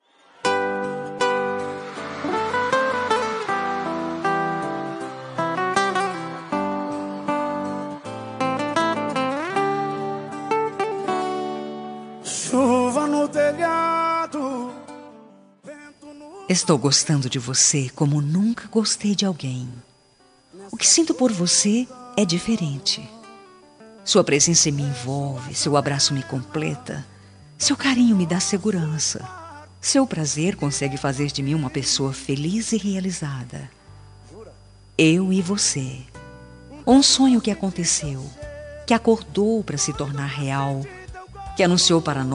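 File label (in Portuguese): romântica suave, Telemensagem Início de Namoro – Voz Feminina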